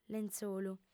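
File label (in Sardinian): "lentzolu", Sardinian, female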